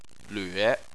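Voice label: "lüe", Chinese, third tone; phonetics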